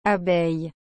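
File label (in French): "abeille", French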